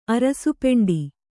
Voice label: "ಅರಸುಪೆಂಡಿ", Kannada